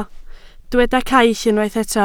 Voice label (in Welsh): "caill", Welsh